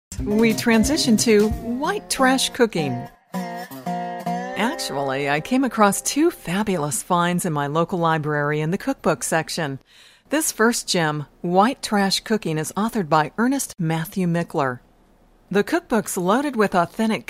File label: Youthful 20s Conversational